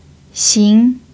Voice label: "xin2", Mandarin pinyin

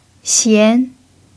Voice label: "xian2", Mandarin pinyin

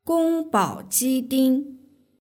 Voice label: "gongbaojiding", Mandarin; orderdish

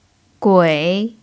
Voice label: "gui3", Mandarin pinyin

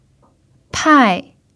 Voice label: "pai4", Mandarin pinyin

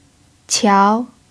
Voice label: "qiao2", pinyin

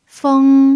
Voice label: "feng1", pinyin

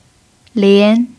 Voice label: "lian2", mandarin pinyin

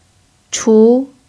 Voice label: "chu2", Mandarin pinyin

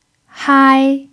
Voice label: "hai1", Mandarin pinyin

